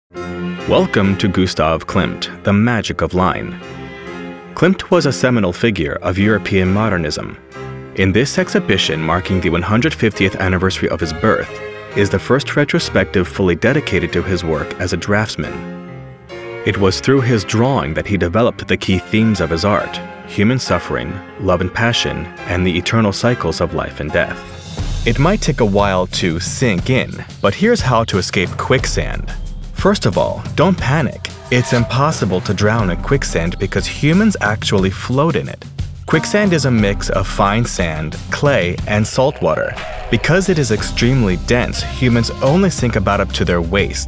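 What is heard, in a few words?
Narration, male voiceover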